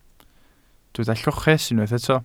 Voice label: "lloches", Welsh